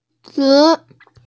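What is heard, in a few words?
"ci2yu3", Mandarin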